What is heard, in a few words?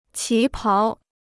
"旗袍", Chinese